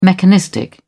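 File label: "mechanistic", English, British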